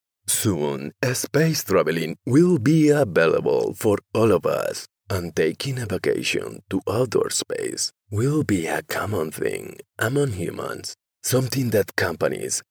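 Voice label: Spanish Spain male voice over, English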